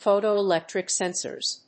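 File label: "Photoelectric Sensors", English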